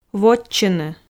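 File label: "вотчыны", Komi, female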